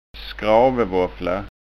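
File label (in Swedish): "skravevaffla", Scanian dialect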